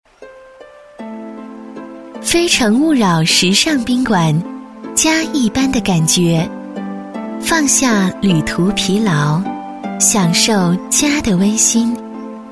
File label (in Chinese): 彩铃 宾馆 可爱